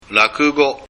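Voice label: "rakugo", Japanese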